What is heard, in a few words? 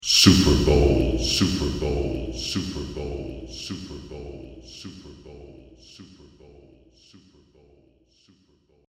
Super Bowl Voice Echoes, football